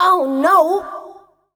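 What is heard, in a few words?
vocal female, Dance Vocals, spoken words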